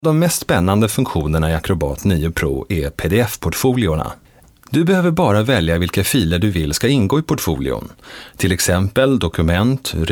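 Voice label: Swedish informative; svensk; mann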